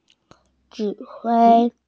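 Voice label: "conduct", Mandarin Chinese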